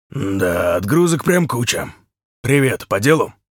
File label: truck Driver greeting